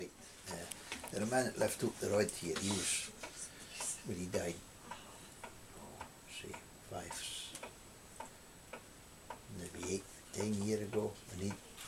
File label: dunrossness croft house shetland speaker